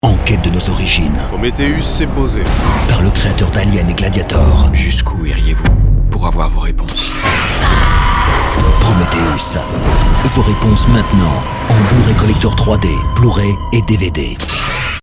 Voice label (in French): spot; Prometheus